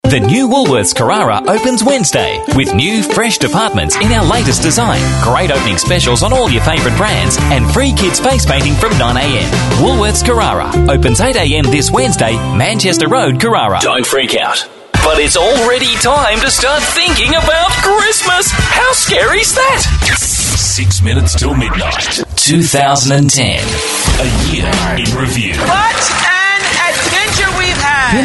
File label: male talent retail